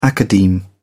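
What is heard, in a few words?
"academe", English, British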